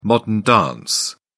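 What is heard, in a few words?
"modern dance", British English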